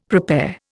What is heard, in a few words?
"prepare", Indian; Dictionary